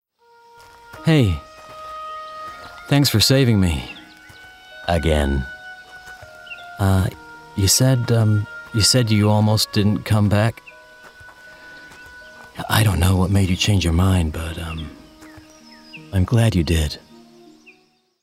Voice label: Gaming Character Everyman US; Everyman American

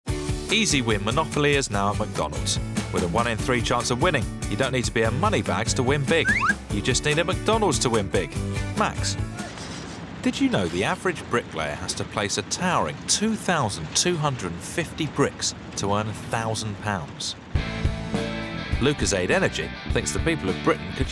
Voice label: Commercials Montage

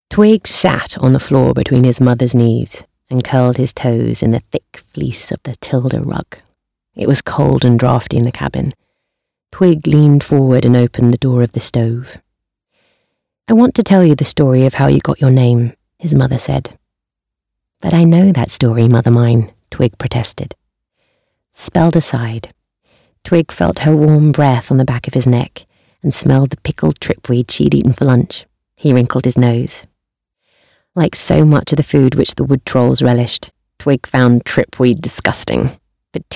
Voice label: Audiobook; Beyond the Deepwoods

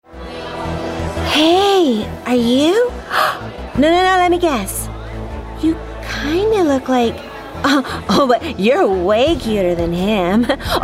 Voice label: Gaming Waitress NPC California; Waitress Character – California

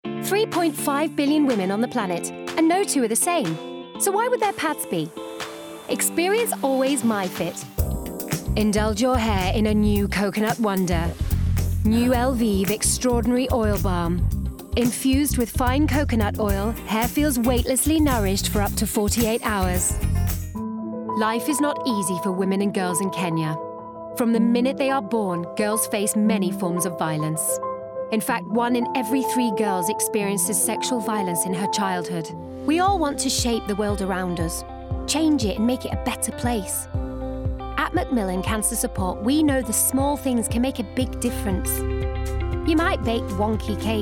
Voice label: Commercials Montage

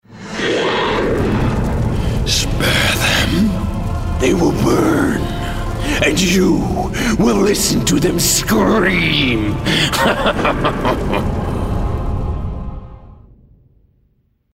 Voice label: Gaming Character Demon

